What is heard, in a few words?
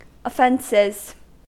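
"offences", American English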